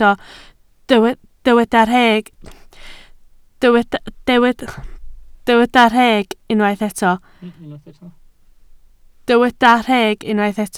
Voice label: "rheg", Welsh

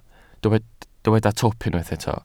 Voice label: "twp", Welsh

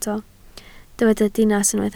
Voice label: "dinas", Welsh

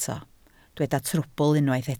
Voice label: "trwbl", Welsh

